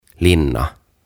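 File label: "Linna", Finnish; Castle; OTAVA KONSERNI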